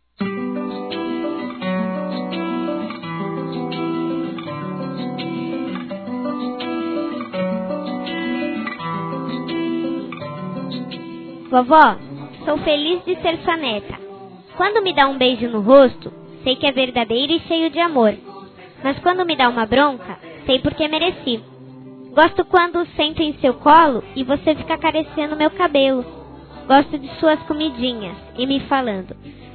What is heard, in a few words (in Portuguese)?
Aniversário Avó Infantil Feminino; Aniversários; Telemensagens